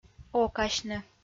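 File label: "окасьны", Komi, female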